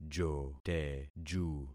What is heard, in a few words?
"joteju", concat syllables; Affixing experiment